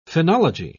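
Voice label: "phenology", British English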